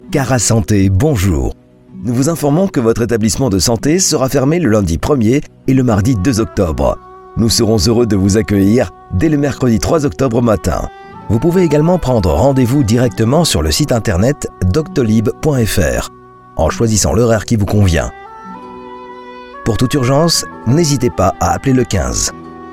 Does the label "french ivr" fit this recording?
Yes